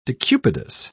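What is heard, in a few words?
"decubitus", British English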